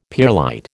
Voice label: "pearlite", American